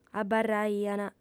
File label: "abarraiant", audiofem